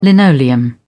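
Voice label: "linoleum", British English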